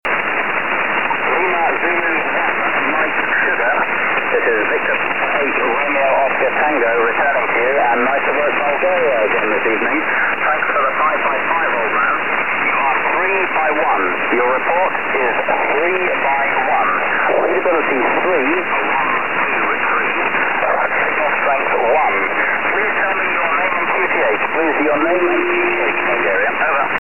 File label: 20 ssb